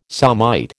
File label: "somite", American